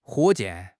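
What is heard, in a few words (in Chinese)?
"火箭", Chinese; HSK; HSK6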